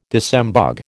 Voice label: "disembogue", American